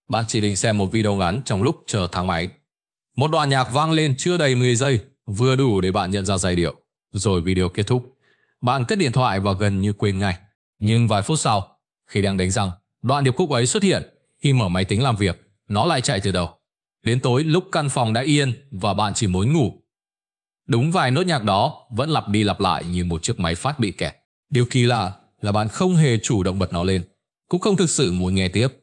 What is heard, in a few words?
narration